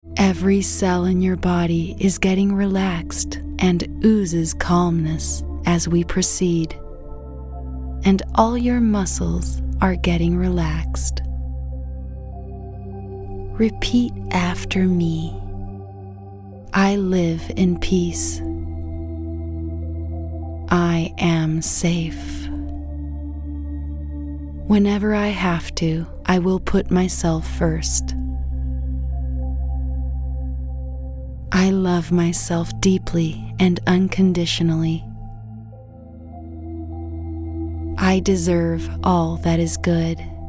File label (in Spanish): Meditación, EN